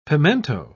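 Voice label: "pimento", British English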